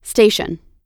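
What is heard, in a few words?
"station", American English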